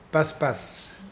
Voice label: "flat", Mokilese

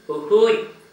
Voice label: hand grinder, Gta, Dialect: Hill; uhujg

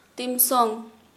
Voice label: "fire", Kharia

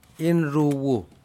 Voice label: fish humphead Wrasse, Aneityum

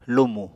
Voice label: "lumù", Apatani

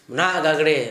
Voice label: "mnaʔ gagre", Gta, Dialect: Hill; corpulent

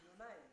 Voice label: "nyonai" lazy, Yawa

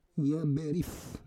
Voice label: "yaberif", Roon

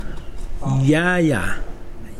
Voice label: rainbow, Mokilese

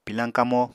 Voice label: "pilañ kamo", apatani

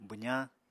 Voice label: "bwzañ", Apatani

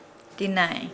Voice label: Nukuoro; stomach

